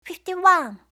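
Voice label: "51", English; eng suuji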